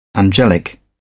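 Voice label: "angelic", British English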